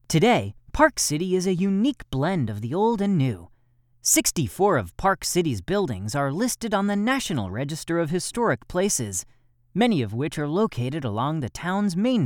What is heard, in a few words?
corporate